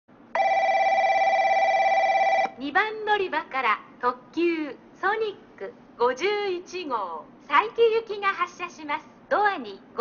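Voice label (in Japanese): 2番のりば発車放送, 中津駅; ソニック51号 佐伯行き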